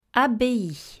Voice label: "abbaye", French; vowels